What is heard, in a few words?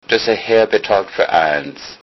pay, low saxon